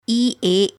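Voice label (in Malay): "ea", Malay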